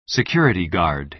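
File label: "security guard", American English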